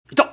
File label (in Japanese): dansei